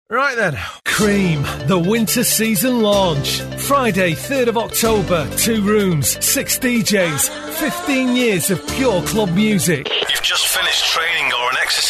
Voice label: radio montage